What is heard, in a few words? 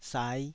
"sai5", taiwanese